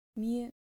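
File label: "myu", Japanese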